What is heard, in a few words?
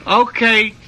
"okay", expletives